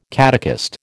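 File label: "catechist", American English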